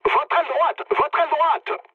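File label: right wing, mood medium, French; War Thunder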